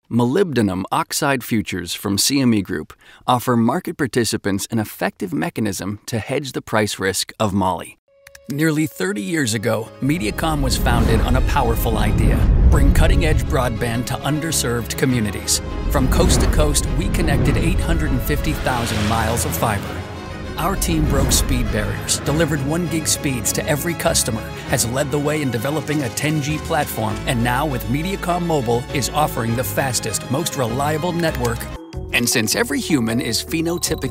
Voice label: men elearning; Conversational– Real Person, Very Cool, Unique, Attitude, Friendly, Approachable, Guy Next Door.

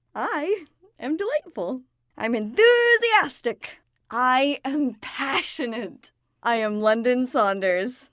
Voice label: kids mini demo

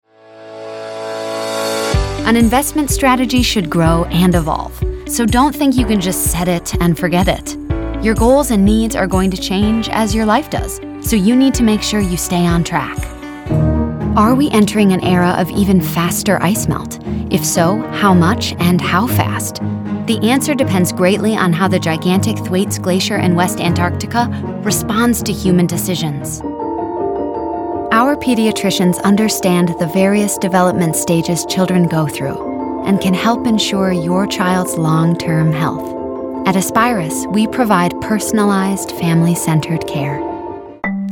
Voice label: women narration

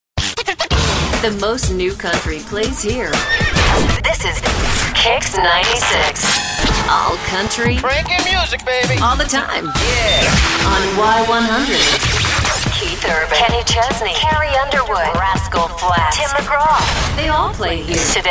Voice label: women radio imaging; Real, credible, Mom-next-door, mid-register. Can go from sexy and sultry to high-energy-holy-smokes.

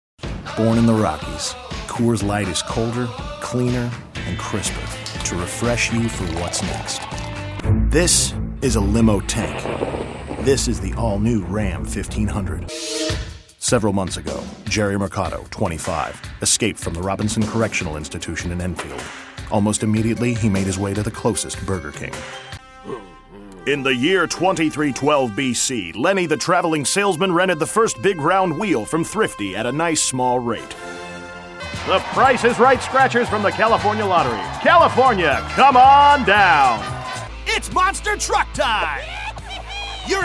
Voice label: men commercial; Cool, friendly non-announcer announcer. Various styles: Edgy, sophisticated, wry, everyman, friendly.

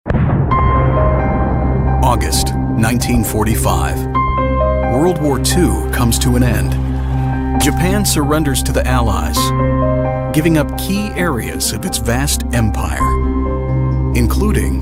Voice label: men narration

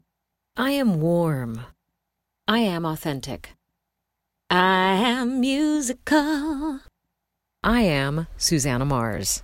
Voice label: women mini demo

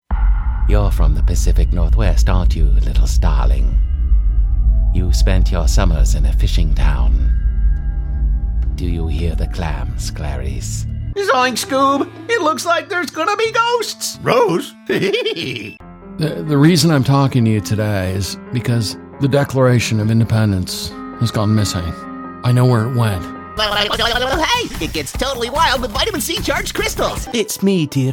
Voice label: men animation; Incredible range, regular guy, friendly and fun.

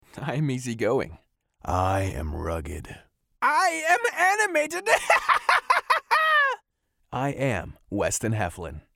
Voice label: men mini demo

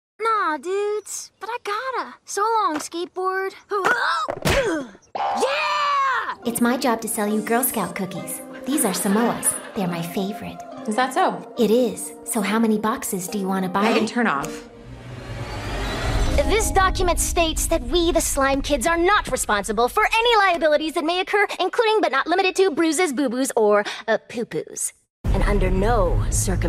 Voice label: women animation; Conversational, funny, Millennial. Gender-neutral, from Jodi Foster to Kristin Bell-sound.

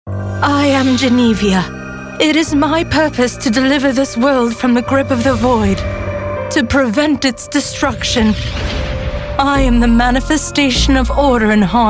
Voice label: women, video games; A conversational dialogue pro

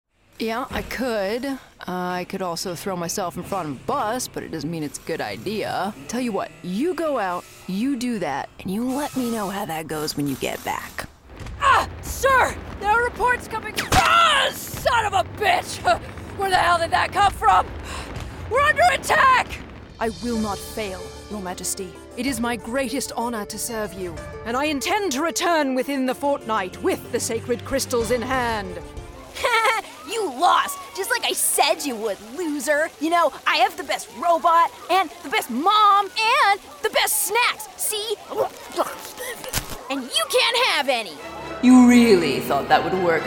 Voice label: nonbinary video games; Conversational, grounded, sometimes sweet, sometimes sarcastic - always authentic. Versatile performer with a touch of rasp

